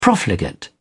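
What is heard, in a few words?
"profligate", British English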